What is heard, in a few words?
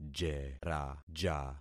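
"jeraja", concatenated syllables; Affixing experiment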